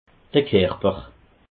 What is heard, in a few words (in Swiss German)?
"kerper", alsace